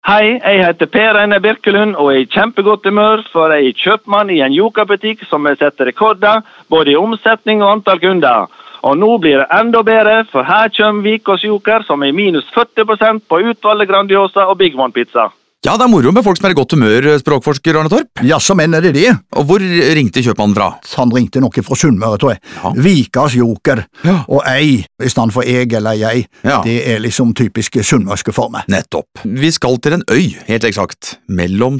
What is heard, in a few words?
radiospots